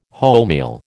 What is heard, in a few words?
"wholemeal", American